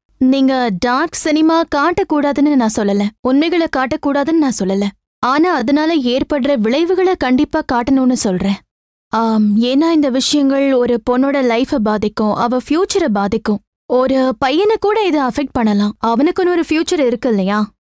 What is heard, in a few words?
Tamil South India Female